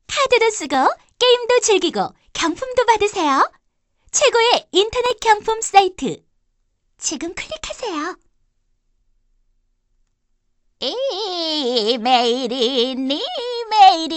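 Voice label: Korean female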